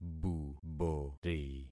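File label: "bubori", concatenated syllables; Affixing experiment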